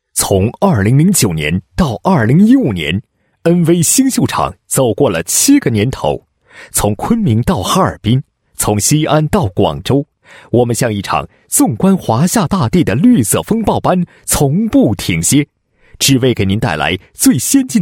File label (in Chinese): 男 宣传广告; 英伟达星秀场